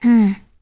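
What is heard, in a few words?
Vox Deny, voices heroes English; kings raid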